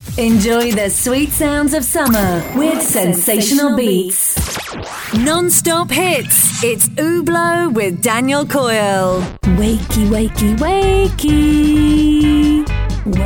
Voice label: Radio Imaging